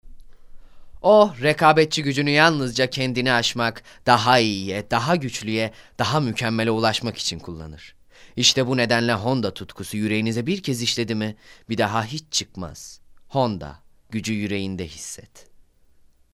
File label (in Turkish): REKLAM